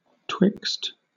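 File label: "twixt", British English; pronunciations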